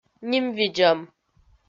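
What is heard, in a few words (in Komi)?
"нимвидзӧм" Komi, female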